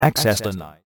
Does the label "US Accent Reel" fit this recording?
No